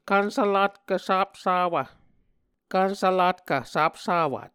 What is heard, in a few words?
"kvnsvlatkv sapsawv", Muscogee